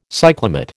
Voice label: "cyclamate", American

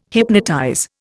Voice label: "hypnotize", Indian

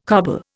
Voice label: "coble", Indian

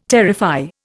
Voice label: "terrify", Indian

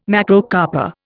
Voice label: "Macrocarpa", Indian

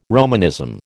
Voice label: "Romanism", American

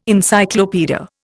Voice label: "Encyclopaedia", Indian

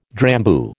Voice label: "Drambuie", American

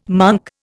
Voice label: "monk", Indian

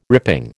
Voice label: "ripping", American

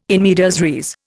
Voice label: "in medias res", Indian

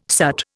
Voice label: "soot", Indian

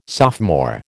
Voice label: "sophomore", American

